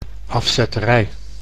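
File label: "afzetterij", Dutch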